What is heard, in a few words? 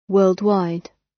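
"worldwide" British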